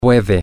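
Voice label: he can, Spanish, male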